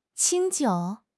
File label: "qing1 jiu3", 汉语口语; 汉语口语新时代-初级下